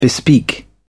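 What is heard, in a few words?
"bespeak", British English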